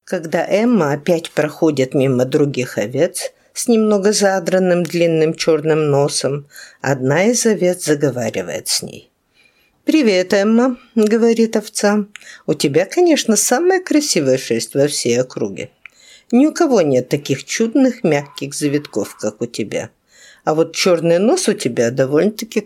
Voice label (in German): russisch